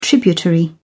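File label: "tributary", British English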